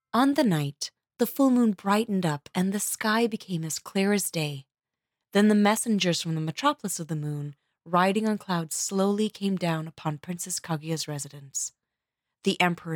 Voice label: English